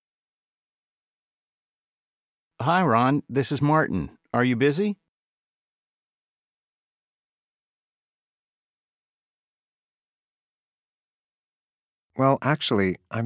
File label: Pronunciation Practice; Connectivity Foundation